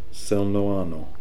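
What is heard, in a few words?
"Selnoano", pronunciations; Fourth Way; Beelzebub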